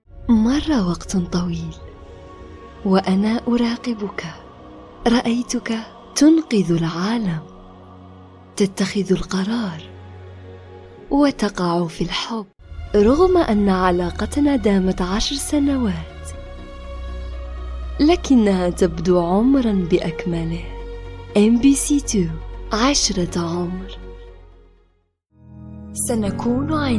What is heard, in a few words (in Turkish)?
Arapca Kadin Klasik Arapca Cezayir, seslendirme; kadin sesler